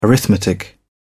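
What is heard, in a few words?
"arithmetic", British English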